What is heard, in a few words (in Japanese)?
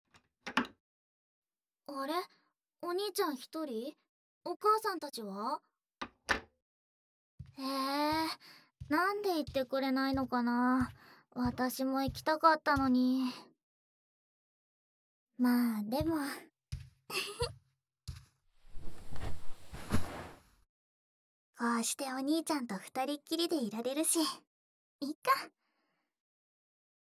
マッサージ、耳マッサージ、耳吹き、寝息, ASMR; 私が癒してあげる♪; ささやき彼女〜義妹(いもうと)と僕のナイショな関係〜